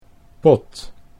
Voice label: "pot", Dutch